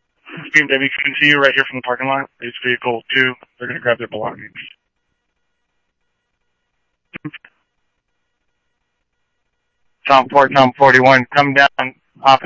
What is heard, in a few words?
Scottsdale Police, scanner audio